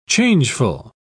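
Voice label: "changeful", British English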